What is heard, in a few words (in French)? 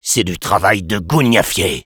Sniper jeers, fr